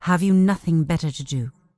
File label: Night Elf Female Priestess NPC Pissed